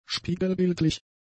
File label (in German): "spiegelbildlich", german; adjectives